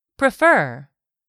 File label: "prefer", english; 3000 words